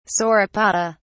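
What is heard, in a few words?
"sauropoda", English, British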